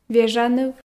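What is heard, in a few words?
"вежаныв", Komi, female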